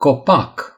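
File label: "tree", Romanian; gcompris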